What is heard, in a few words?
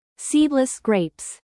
"seedless grapes" US; Flora and fauna, agriculture